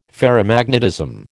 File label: "ferromagnetism", American